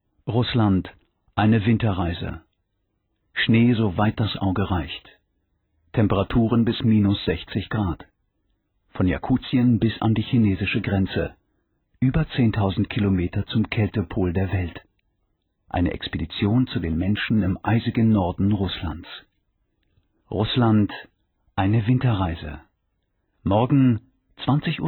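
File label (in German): zdf trailer, Sprecher, Männer; Erzählung (berlinisch); Doku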